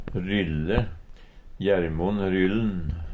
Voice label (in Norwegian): ryllen, Numedalsmål